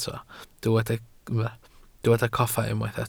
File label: "coffa", Welsh